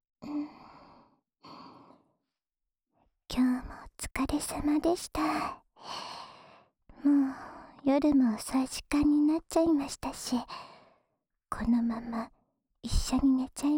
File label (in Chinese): 安眠用陪睡迴圈（右耳）, ASMR; 耳かきリフレ『春乃撫子』